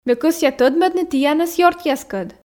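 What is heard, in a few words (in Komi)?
ме кӧсъя тӧдмӧдны тіянӧс ёртъяскӧд, Komi, female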